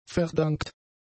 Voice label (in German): "verdankt", German; adjectives